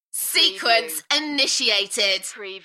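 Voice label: British female Sequence initiated Enthusiastic; beanstalk audio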